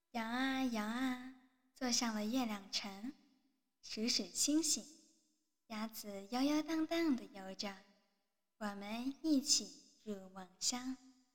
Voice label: 摇篮曲配音 试音温柔知性型, 性别:女, 语言:普通话, 年龄:青年（18-35）

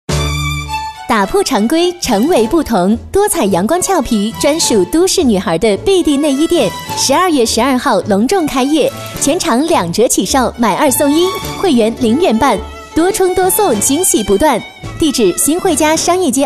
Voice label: 广告内衣

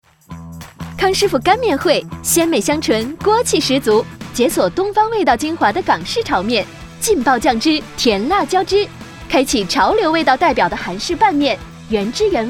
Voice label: 广告活泼

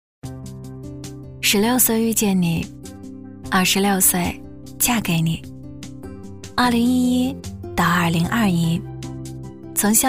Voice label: 女; 校服到婚纱

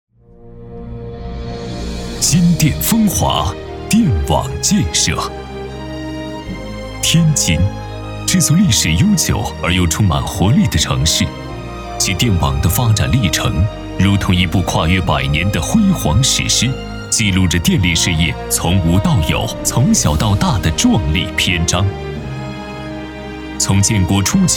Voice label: 汇报片; 天津电网建国周年

